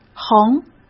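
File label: "横", Mandarin Chinese; hsk; chinese-flashcards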